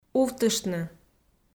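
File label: "увтыштны", Komi, female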